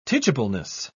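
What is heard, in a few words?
"teachableness", British English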